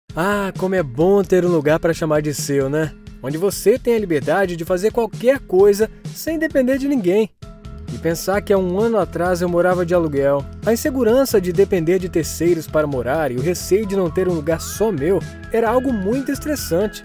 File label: portuguese brazilian animation